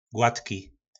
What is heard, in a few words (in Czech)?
"gladki", nářečí Po našimu; Hladký; Gładki